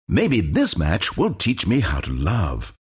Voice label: announcer count battle; dlc stanleyparable